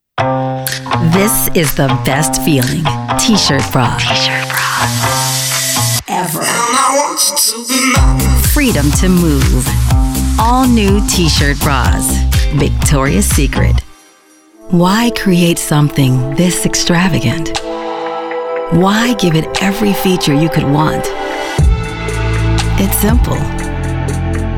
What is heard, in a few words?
Commercial